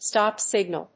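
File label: "Stop Signal", English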